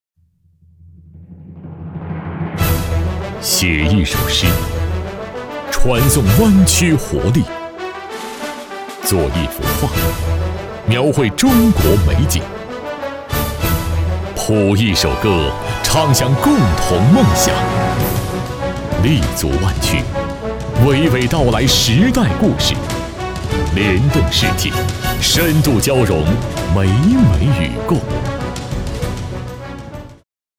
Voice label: 男, 专题, 企业; 琴澳之声宣传片

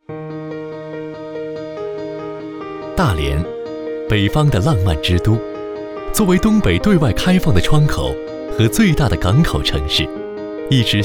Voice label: 男 中文 年轻朝气; 大连

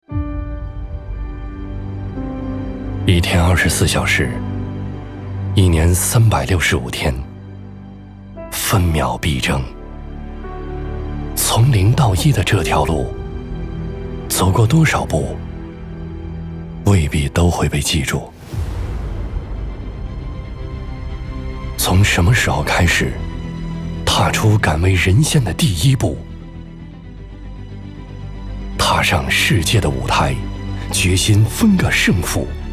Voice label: 男 走心 人文篇; 天津港人文篇

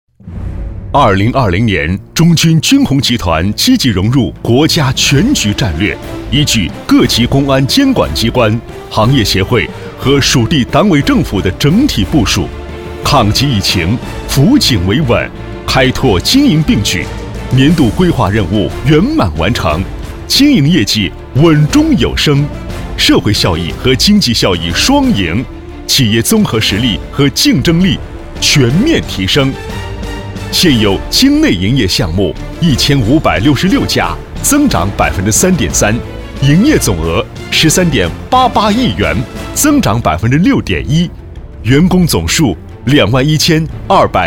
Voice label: 男 浑厚大气

